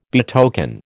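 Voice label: "betoken", American English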